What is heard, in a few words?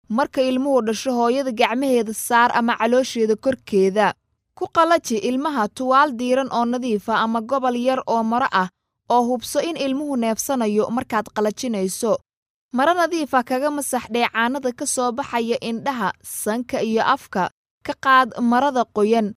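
Somali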